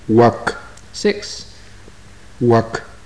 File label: numbers, maya